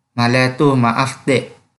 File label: Kelantan-Pattani Malay